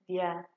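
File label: "deir", Nigerian Pidgin, female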